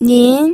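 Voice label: "nin", second tone, pinyin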